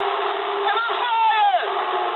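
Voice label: English on fire mood low; War Thunder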